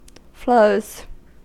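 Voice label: "flows", US English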